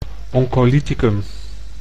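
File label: "oncolyticum", Dutch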